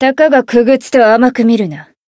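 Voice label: encounter, jp; hiina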